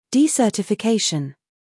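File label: "scandal", American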